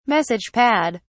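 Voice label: "message pad", British English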